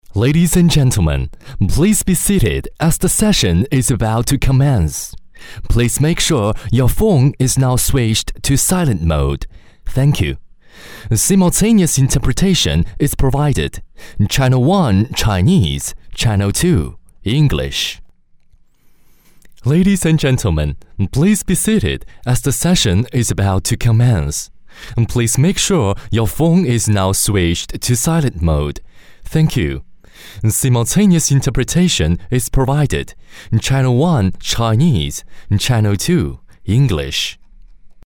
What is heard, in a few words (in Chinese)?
男 会议画外音 英文